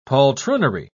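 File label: "poltroonery", British English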